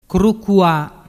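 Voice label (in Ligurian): "crucua", Ligurian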